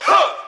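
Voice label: "huh", vocal sample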